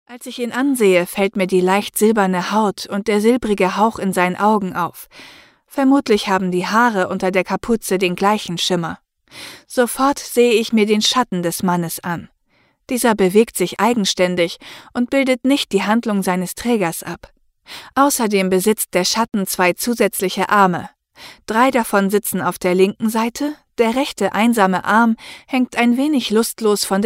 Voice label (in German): Hoerbuch